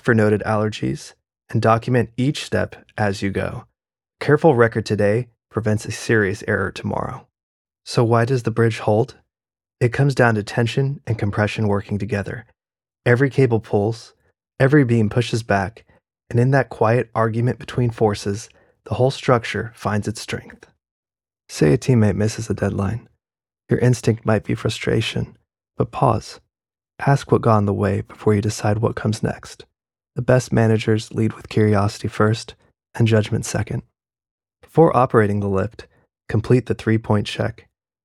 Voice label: e-learning, e-learning friendly trainer clear patient